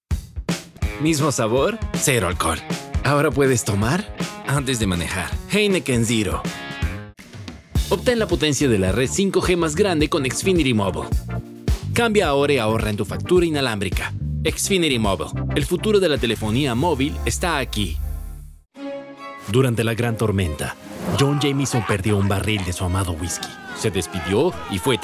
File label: Commercial